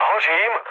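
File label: on fire, Czech, mood low; War Thunder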